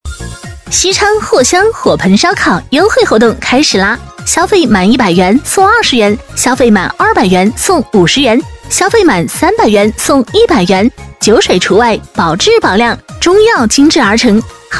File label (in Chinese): 女声火盆烧烤